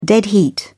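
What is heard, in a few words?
"dead heat", en, British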